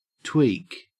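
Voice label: "tweak", Australian English; pronunciations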